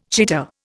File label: "cheater", Indian